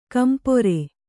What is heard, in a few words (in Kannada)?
"ಕಂಪ್ರ", Kannada